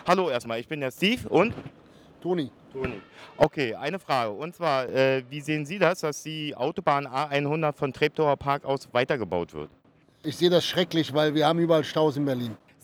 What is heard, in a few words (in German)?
Vox Pops, A100 – Ja oder Nein?; A100 – Ja oder Nein? Das ist hier die Frage; Der Bau der A100 beschäftigt die Berliner nun schon seit geraumer Zeit.